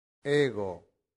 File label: "ego", Latin; santillana